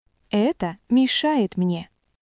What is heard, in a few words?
Russian, russian phrases insults; ロシア語のネガティブなフレーズ